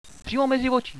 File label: good shot, radio bot